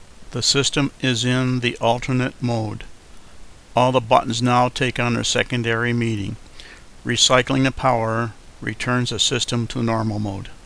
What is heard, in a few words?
alternate mode, Male English